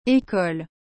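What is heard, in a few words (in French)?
"ecole", French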